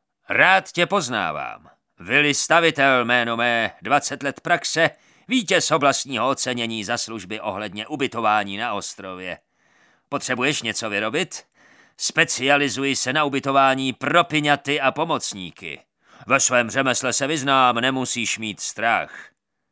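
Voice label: Male Czech drama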